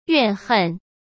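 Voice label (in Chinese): "怨恨" Mandarin Chinese; chinese-flashcards; hsk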